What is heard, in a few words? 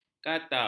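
"kata", Malay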